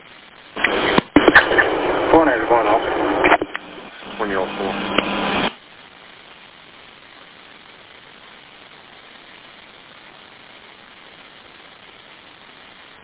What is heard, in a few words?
Police Radio